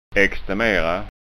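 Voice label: "ekstemera", Scanian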